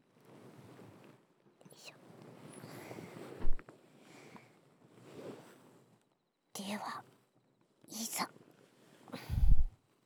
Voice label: 耳かきサービス, ASMR; 来春

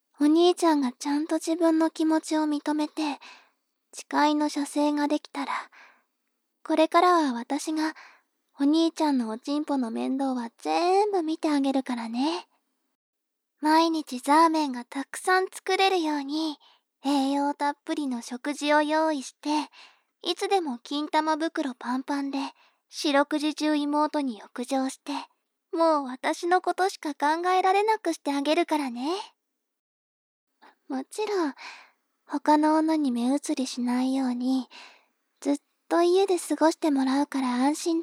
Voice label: 妹 台詞

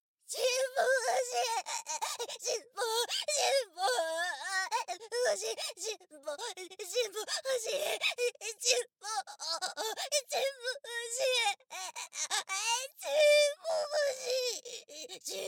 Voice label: お嬢様 ちんぽ ボイス 右 苦しみ度 強

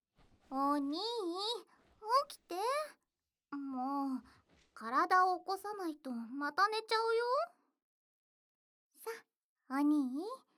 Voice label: マッサージ、耳掻き、耳舐め; 双り妹ママのマッサージ、耳掻き、耳舐め…

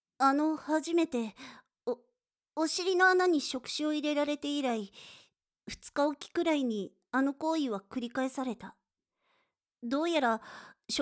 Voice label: ボイスのみ; 4章◆ハラミエルフ

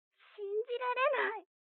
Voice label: 彼女の電話台詞, ASMR

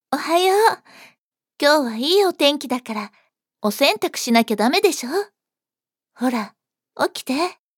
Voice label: 幼馴染, 幼馴染の女の子

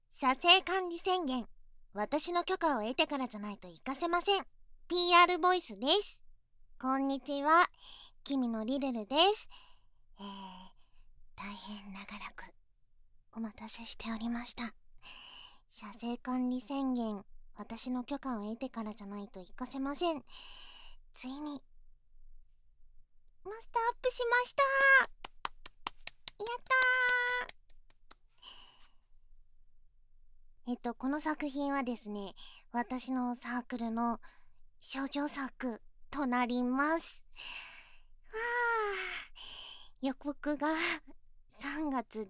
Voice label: PRボイス

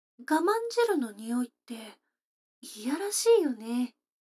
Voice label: 女の子台詞

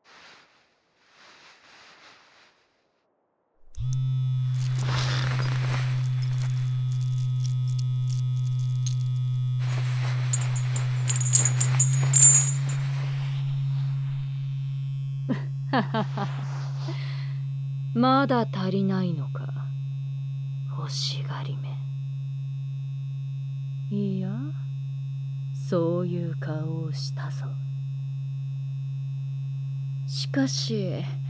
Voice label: ハンディマッサージャーだ！顔のツボを刺激してやる, ASMR

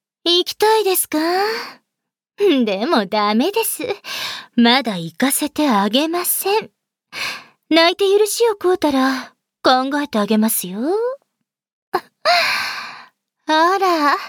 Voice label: トドメボイス

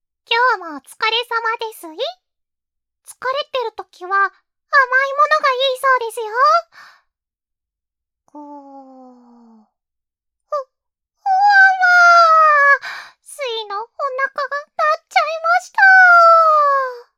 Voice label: 励ましボイス

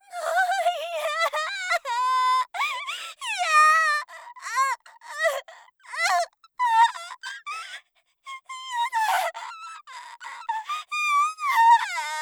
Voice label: 抵抗, ASMR; 効果音なし

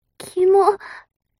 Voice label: mob 女子 台詞

